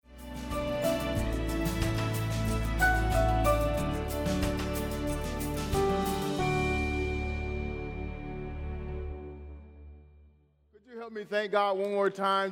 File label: English Sermon